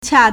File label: "qia4", Chinese